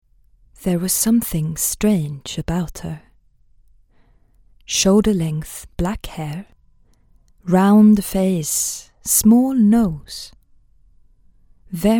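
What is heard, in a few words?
Swedish accented English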